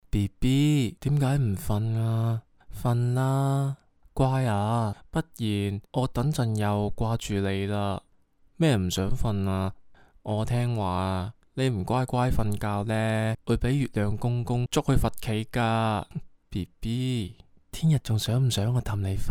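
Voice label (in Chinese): 试音男神音哄睡粤语版男播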